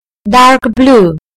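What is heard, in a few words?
"dark blue", British English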